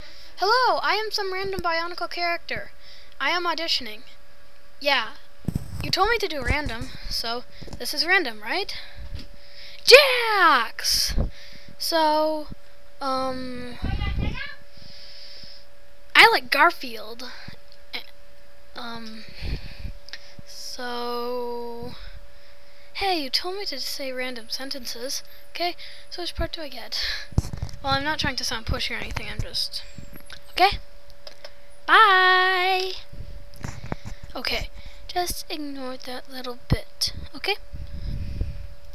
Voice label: voice tryout

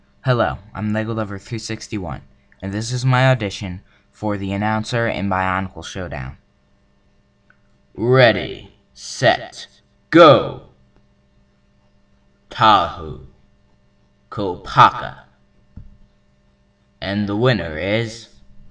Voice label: announcer

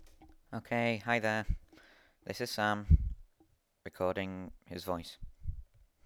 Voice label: voice, Accent

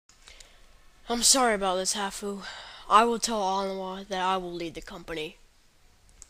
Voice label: narration; Shark Comix

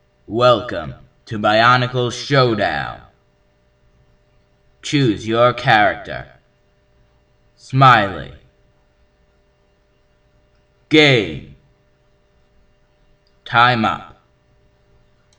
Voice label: announcer

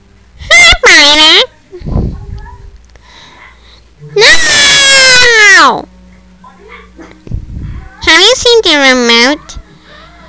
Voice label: voice message; Voya Mahri Nui

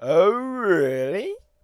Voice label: voice orly

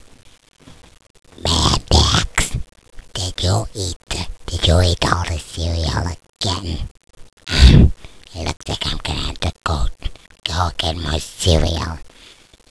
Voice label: voice acting

